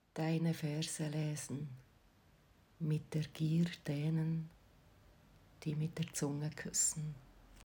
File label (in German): Deutsch